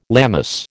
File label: "Lammas", American